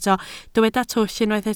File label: "twll", Welsh